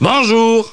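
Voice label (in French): "bonjour", French; Comiques; Coluche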